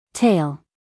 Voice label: "tale", us female